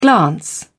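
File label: "glance", English, British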